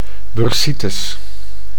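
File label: "bursitis", Dutch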